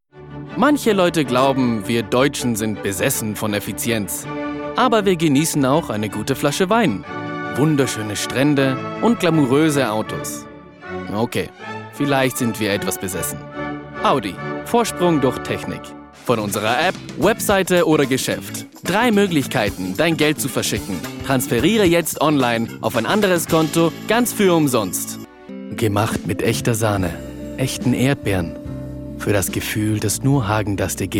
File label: German